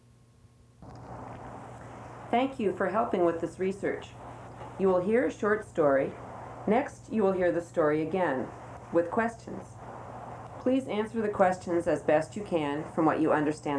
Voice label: Solomon Island Pijin